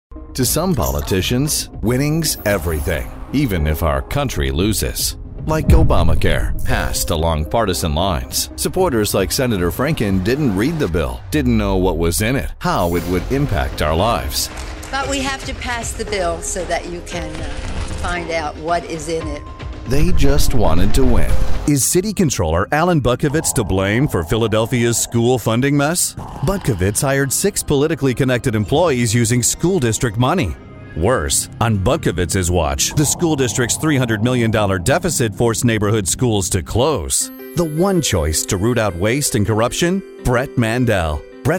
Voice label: GOP Voiceover, Male; Political GOP Voices English MALE